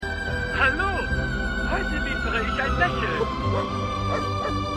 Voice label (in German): Zeichentrick Vogel; Hello Tomorrow